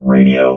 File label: "radio", robot